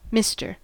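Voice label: "mister", US English; pronunciations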